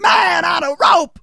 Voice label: "bungee", Soul Man

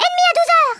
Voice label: "incoming", French; Worms Armageddon

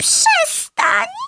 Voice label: LEAVE ME ALONE, Speech, Polish; Worms Armageddon

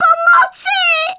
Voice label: GRENADE, Speech Polish; Worms Armageddon

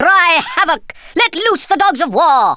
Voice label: revenge, Speech Thespian; Worms Armageddon

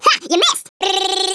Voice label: "missed", wacky speech

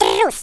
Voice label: coward, Russian

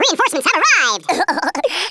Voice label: DROP, Speech, Wacky

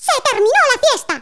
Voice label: FIRSTBLOOD, Spanish

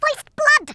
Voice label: "First blood", Brooklyn; Worms Armageddon

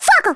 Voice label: FIRE, Speech, Italian; Worms Armageddon

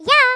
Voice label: "HELLO", Greek; Worms Armageddon

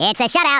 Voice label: "flawless", US Sports

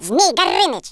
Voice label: dragon punch, Speech, Russian

